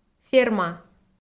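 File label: "ферма", Komi, female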